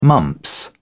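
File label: "mumps", British English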